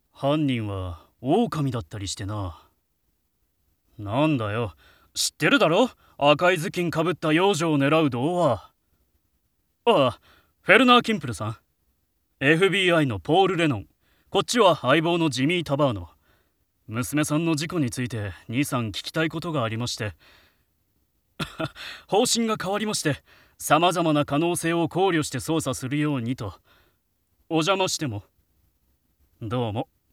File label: male